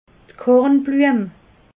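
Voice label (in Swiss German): "kornbluem", Alsatian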